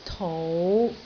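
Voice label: "tou5", Cantonese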